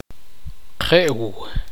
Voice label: "ancho", tektiteko

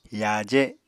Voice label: "yaaje", Apatani

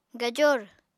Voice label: "gajor", Apatani

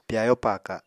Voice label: "pyayo paaka", Apatani; Household Items

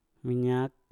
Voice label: "minyak", Mpur; gemuk

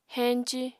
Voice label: "henci", apatani

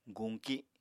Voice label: "guñki", Apatani

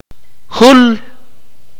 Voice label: "come", Akateko

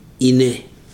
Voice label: "fishing grounds", Ibibio

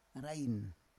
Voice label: "arain", Roon

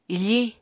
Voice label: "ifiñ", Apatani

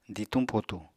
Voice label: "dituñ-potuñ", Apatani

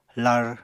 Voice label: "lar", Apatani

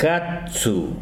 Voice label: "pasto", Tsesungun

